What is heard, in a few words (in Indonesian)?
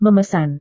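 "memesan", Indonesian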